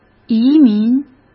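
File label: "移民", Mandarin Chinese; chinese flashcards; hsk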